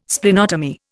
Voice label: "Splenotomy", Indian

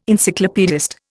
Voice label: "Encyclopaedist", Indian

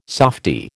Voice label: "softy", American

